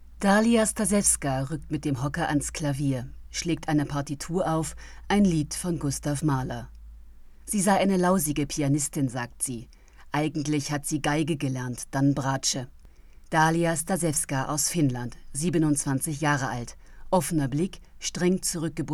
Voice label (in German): Reportage; Taktstock